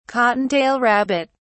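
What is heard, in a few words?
"cottontail rabbit", British English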